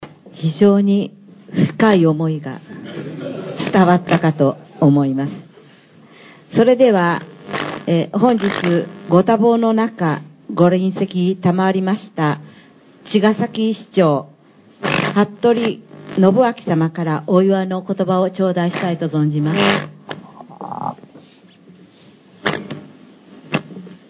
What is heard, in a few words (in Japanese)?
司会; rakuseishiki